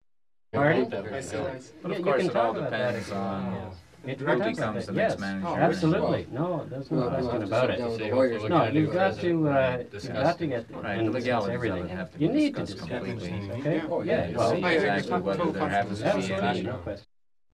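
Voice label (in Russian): мужского разговора небольшая группа англ.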